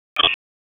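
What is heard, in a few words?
EMS Dispatch; wake; p25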